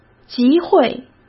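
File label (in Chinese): "集会", Mandarin Chinese; hsk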